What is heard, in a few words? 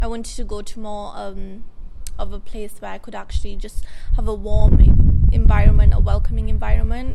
Female